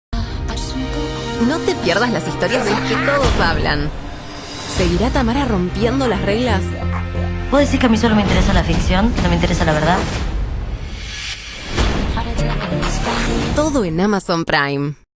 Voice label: spanish argentinian promos, voice actors